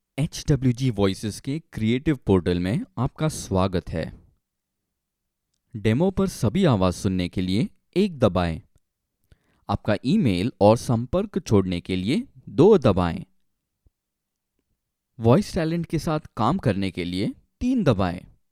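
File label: Hindi Male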